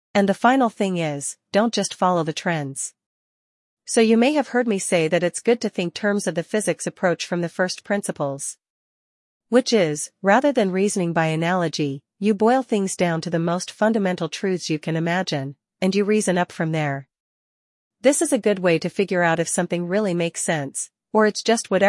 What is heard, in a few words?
woman